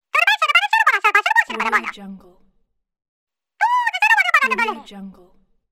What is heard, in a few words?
cartoon telephone voice